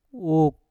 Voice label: "uk", Mpur; bunga